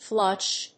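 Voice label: "flushed", English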